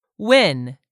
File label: "win", English word; 3000 words